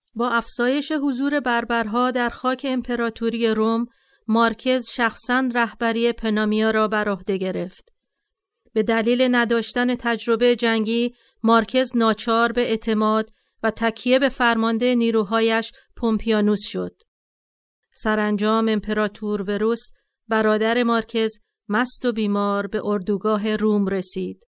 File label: female farsi voice over narrative